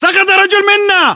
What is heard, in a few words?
reaction casualty generic, battle chatter, english